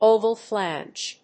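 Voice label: "oval flange", English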